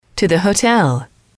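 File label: to the hotel, English, female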